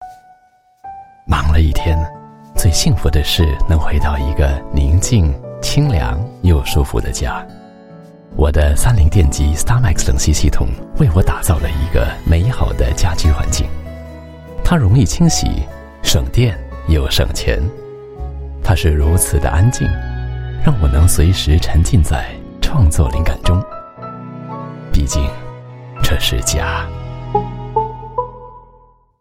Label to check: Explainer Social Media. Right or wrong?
Wrong